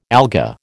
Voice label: "alga", American

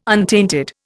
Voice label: "untainted", Indian

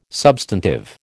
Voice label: "substantive", American

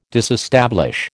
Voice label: "disestablish", American

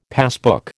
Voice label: "passbook", American

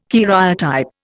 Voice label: "karyotype", Indian

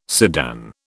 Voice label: "sedan", British

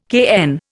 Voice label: "cayenne", Indian

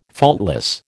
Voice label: "faultless", American

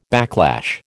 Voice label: "backlash", American

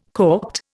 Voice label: "corked", Indian

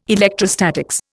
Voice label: "electrostatics", Indian

